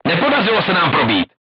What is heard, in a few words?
voice message armor not pierce, crew tanks czech